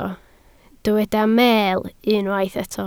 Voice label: "meel", Welsh